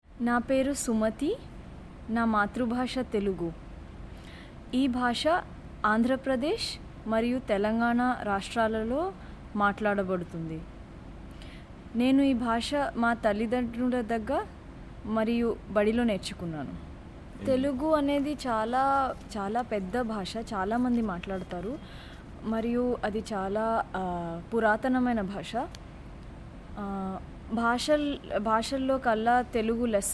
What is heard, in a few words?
telugu; tamil nadu